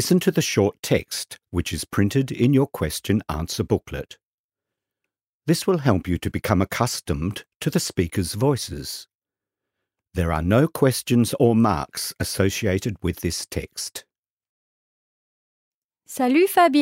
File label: French Background Language